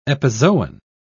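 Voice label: "epizoon", British English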